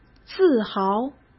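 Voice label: "自豪", Mandarin Chinese; chinese-flashcards; hsk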